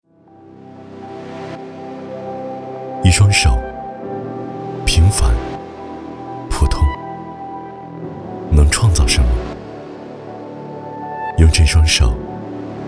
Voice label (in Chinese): 男 走心; 一双手